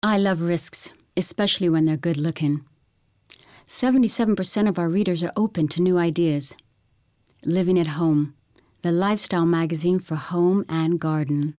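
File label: uk englisch, British English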